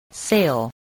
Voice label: "sail", English spelling